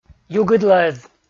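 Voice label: "югыдлӧз", Komi, male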